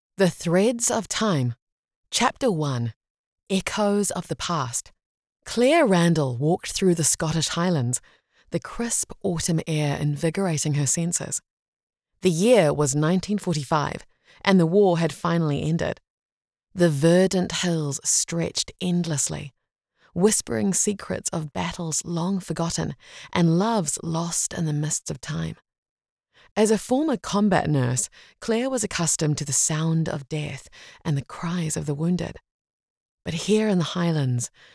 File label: english new zealand audiobooks; voice actor